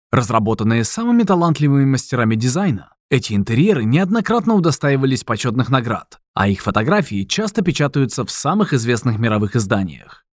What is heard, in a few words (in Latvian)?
Reklāma RU